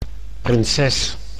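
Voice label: "prinses", Dutch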